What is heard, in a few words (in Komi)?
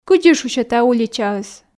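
кыдзи шусьӧ тайӧ уличаыс, Komi, female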